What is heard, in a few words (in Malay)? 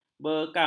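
"bekam", Malay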